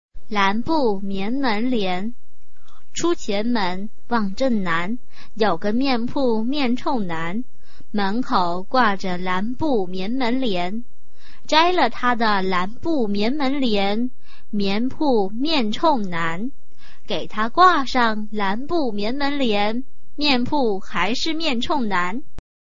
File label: chinese tongue twister